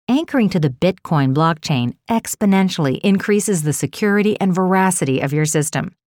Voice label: Genuine Peer corporate elearning

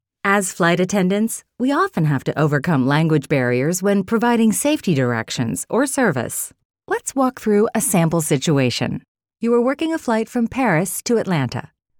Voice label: Airline Staff Friendly

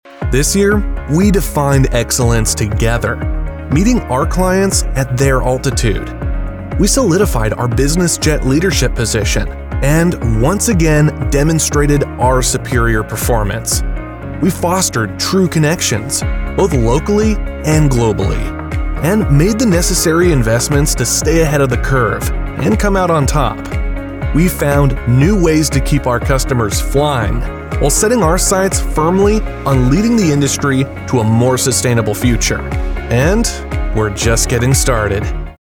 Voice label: Corporate Hype Video Voice Over

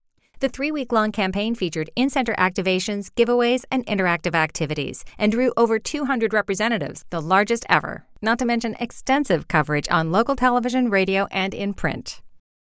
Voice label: Case Study Confident Attitude

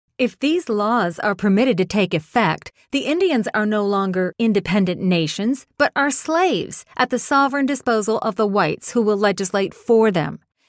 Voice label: Historical Character Museum

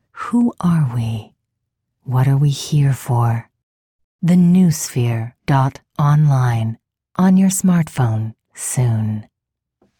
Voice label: Mystical Charismatic Resonant

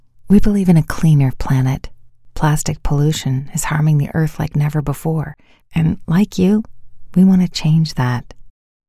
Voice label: Our Story Soft Genuine

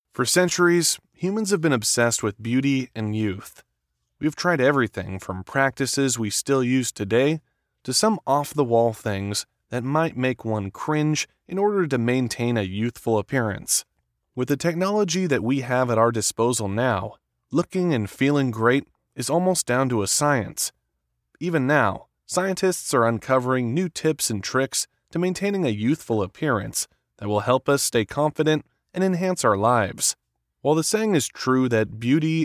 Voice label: Health and Fitness Audiobook Narration

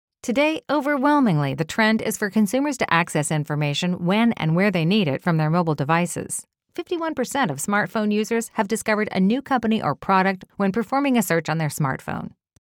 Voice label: Marketing Natural Genuine

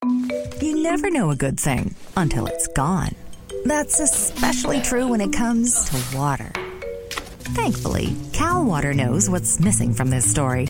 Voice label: Voice Over Artist Relatable Sass Water

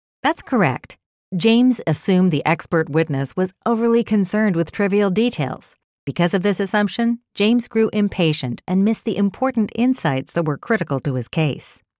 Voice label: Cases Conversational

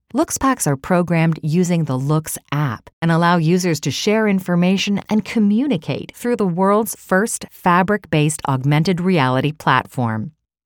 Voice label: Professional Informative

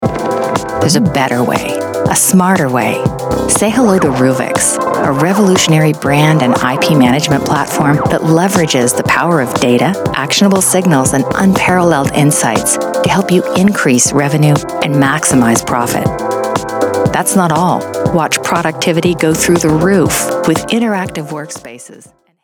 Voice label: A Better Way Natural Low key

